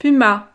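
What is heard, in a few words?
"puma", French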